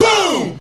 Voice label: "boom", Voice